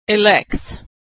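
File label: "elects", American English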